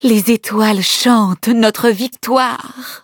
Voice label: victory, fr; cookie run

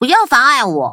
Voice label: touch, zh-hant; Cookie Run

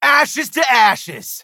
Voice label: levelup, en; Cookie Run

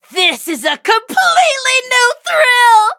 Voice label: levelup, en; cookie run

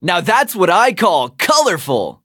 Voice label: victory en; Cookie Run

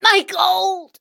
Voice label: hit, en; Cookie Run

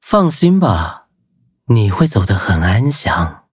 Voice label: battle, zh-hant

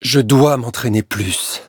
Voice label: defeat, fr; Cookie Run

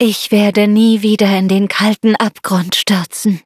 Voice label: loading, de; cookierun

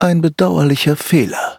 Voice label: defeat, de; cookie run

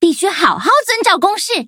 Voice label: touch, zh-hant; Cookie Run

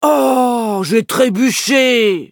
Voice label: defeat, fr; Cookie Run

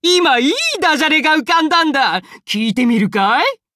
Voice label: upgrade, ja; cookierun

